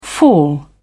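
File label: "fall away", British English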